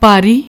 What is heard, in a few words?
"pari", Punjabi